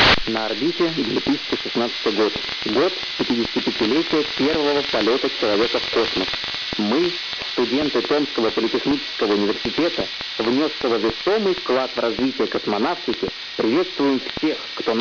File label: russian; tomsk